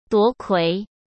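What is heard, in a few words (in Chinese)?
"夺魁", Mandarin; chinese flashcards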